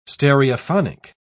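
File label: "stereophonic", British English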